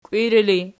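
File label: "kiwiri" ergative, Archi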